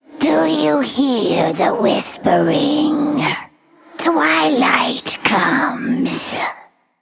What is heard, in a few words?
Female Undead Idle